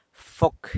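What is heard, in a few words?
"foc", San Lucas Quiavini Zapotec; light bulb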